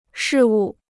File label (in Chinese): "事物", Chinese